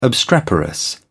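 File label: "obstreperous", British English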